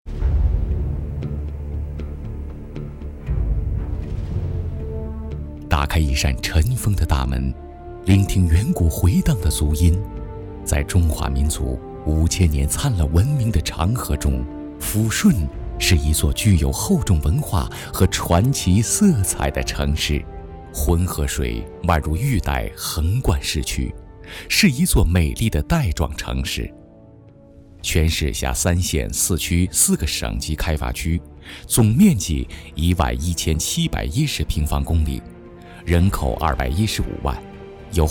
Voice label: 男 城市宣传片

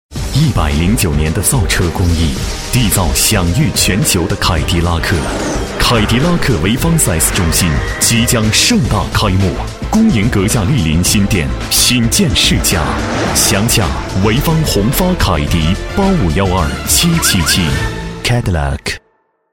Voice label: 男, 国语男声配音 深沉大气; 凯迪拉克

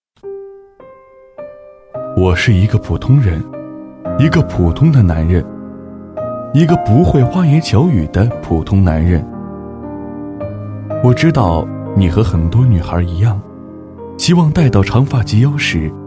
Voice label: 男 自述 温暖阳光

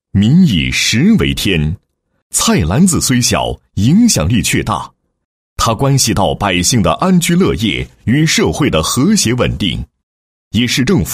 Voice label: 男 房地产专题 农贸精品超市简介; 望湖新城新都汇

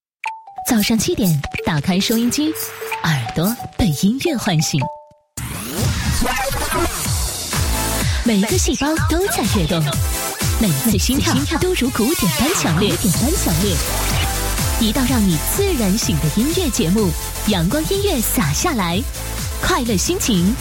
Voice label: 女4号 栏目包装, 国语女声配音; 优雅; 阳光音乐洒下来

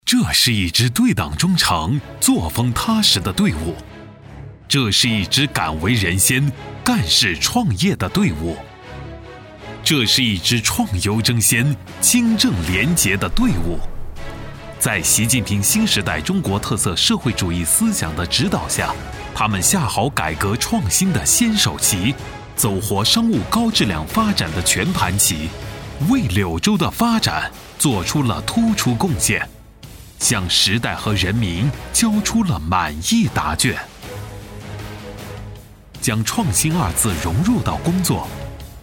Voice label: 男, 大气激情

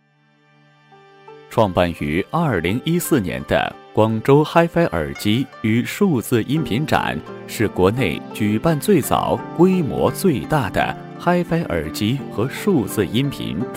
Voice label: 男 模仿; 偏向国宝档案风格

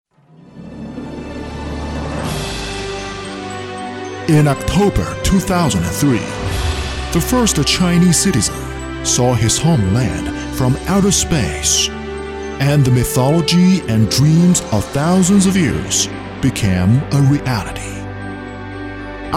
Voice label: 英男, 宣传片; 国家形象宣传片

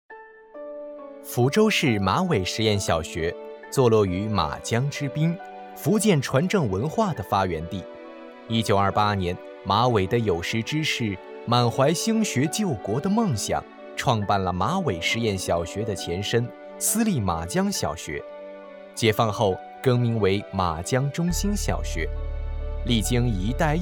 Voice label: 宣传片; 马尾实验小学

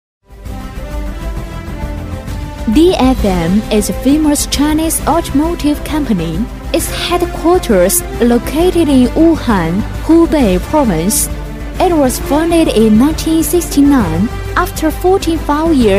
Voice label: 英女, 英语专题; 东风汽车集团

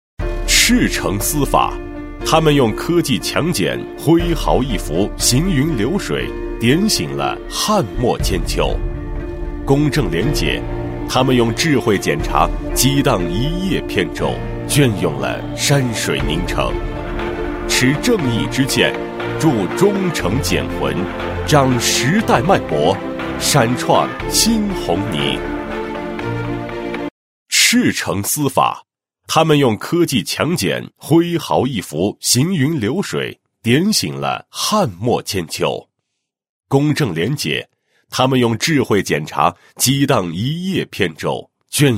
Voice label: 男 检察院; 宁城县人民检察院样系统建设

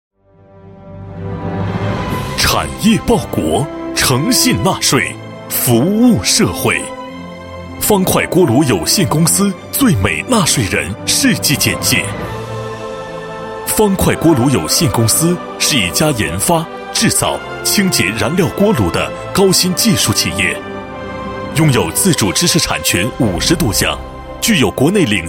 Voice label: 男 企业; 最美纳税人方快锅炉介绍

